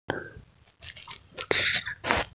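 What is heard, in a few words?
"gift", Mandarin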